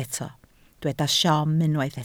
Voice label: "siom", welsh